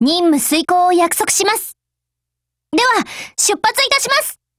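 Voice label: Japanese; 81typeR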